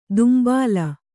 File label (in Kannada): "ದುಂಬಾಲ", Kannada